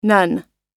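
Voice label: "none", American English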